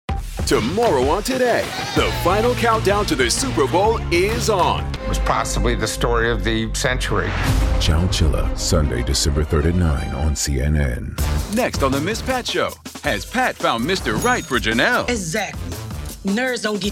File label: Promo